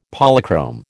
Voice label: "Polychrome", American

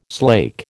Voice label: "slake", American

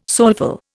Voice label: "soulful", Indian

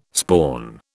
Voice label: "spawn", British

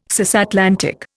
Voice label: "cisatlantic", Indian

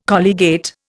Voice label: "colligate", Indian

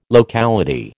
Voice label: "locality", American

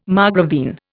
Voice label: "margravine", Indian

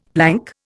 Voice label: "plank", Indian; Dictionary

